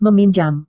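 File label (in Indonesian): "meminjam", Indonesian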